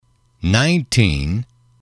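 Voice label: "nineteen", English, male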